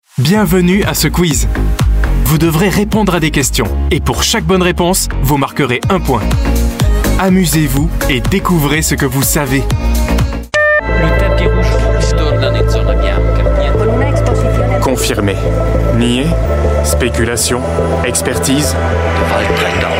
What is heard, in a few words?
french voice over male commercial